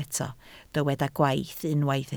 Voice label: "gwaith", Welsh

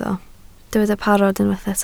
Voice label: "parod", Welsh

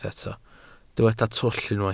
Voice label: "twll", Welsh

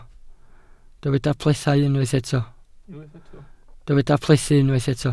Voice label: "plethu", Welsh

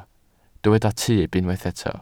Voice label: "tyb", Welsh

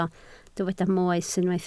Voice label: "moes", Welsh

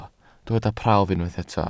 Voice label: "prawf", Welsh

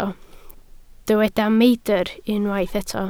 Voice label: "meidr", welsh

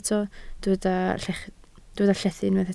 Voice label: "llethu", Welsh

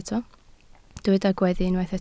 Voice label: "gweddu", Welsh

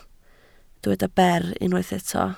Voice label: "ber", Welsh

